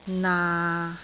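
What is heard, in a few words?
"naa6", Cantonese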